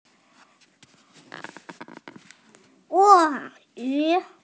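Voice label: "e4 yu2", Mandarin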